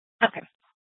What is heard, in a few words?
Superstition Dispatch, Mesa PD